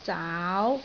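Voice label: "zaau2", Cantonese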